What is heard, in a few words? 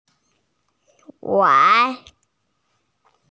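"wan2", Mandarin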